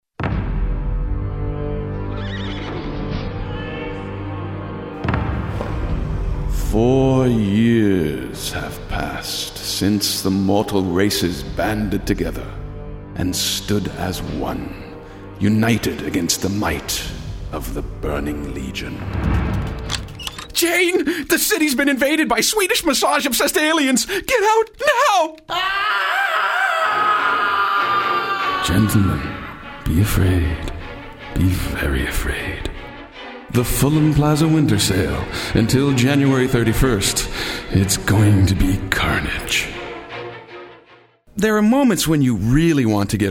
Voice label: voice demo short